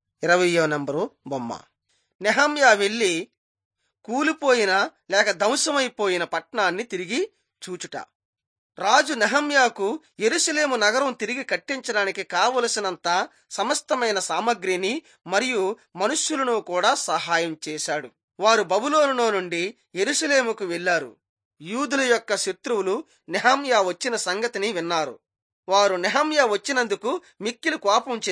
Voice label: telugu bangladesh; on trial for god

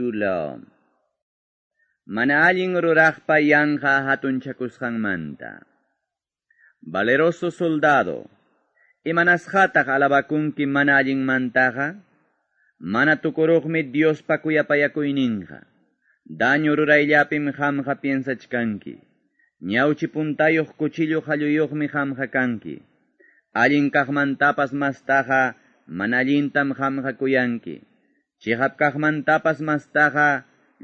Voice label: salmos, quechua ayacucho

